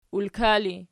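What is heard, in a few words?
"ulka" ergative, Archi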